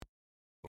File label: Emote Positive; Drek'ar